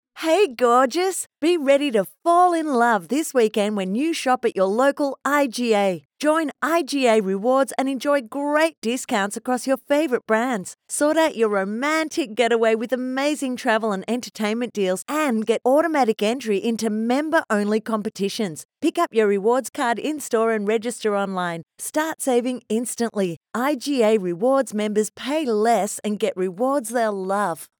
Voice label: Instore Advertisement; IGA